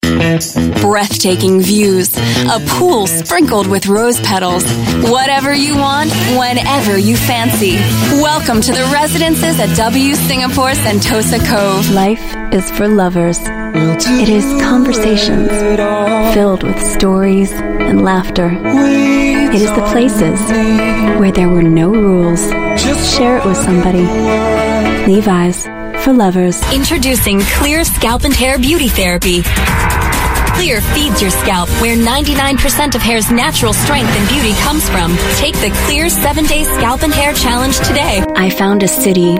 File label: US English Commercial, English US Female